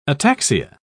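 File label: "ataxia", English, uk British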